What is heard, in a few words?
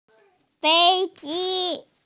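"fei ji" (airplane), Mandarin Chinese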